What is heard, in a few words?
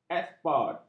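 "eksport", Malay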